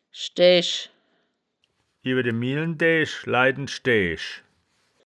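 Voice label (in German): "schdaeaesch", wittlicher woerterbuch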